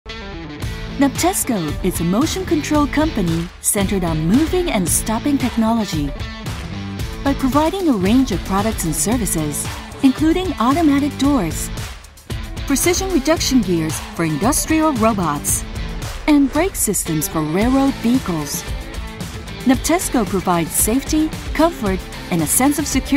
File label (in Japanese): シャープ、かっこいい、躍動的; Nabtesco